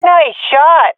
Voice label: sees enemy killed, swat fem; vo; Counter Strike Model Pack; CS:GO